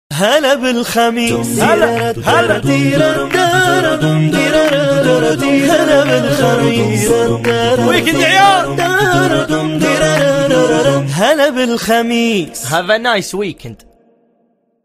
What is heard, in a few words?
arabic have a nice weekend